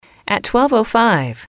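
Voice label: "twelve five", English, female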